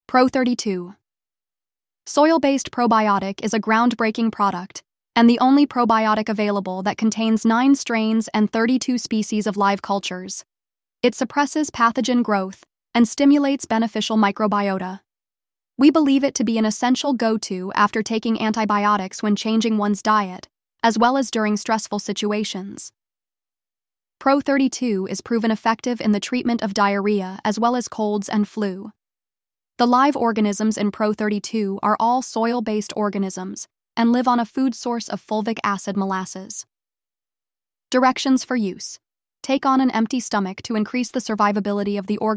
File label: Voiceover; Oshun Health; Pro 32; soil based probiotic